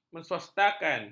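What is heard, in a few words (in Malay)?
"menswastakan", Malay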